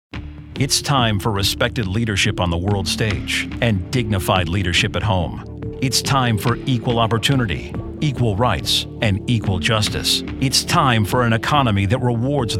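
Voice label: political, Voiceover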